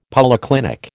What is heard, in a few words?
"polyclinic", American